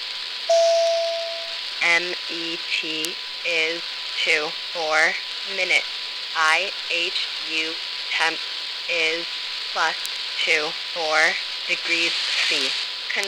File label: spoken tlm female; arissat